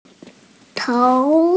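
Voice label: "tou2", Mandarin Chinese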